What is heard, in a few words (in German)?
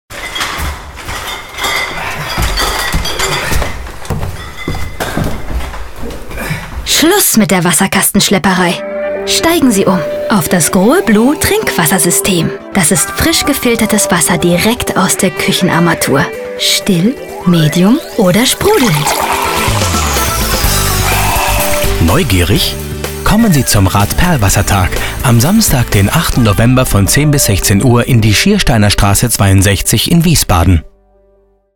funkspot; grohe blue